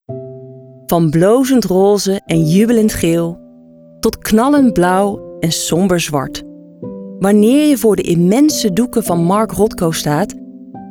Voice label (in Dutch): Narratieve Corporate, voice-over